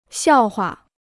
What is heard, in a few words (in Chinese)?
"笑话", Chinese